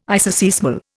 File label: "isoseismal", Indian